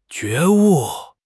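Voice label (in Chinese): "觉悟", Chinese; HSK6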